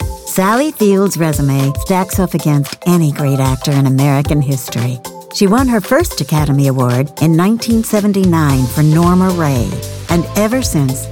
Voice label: Narration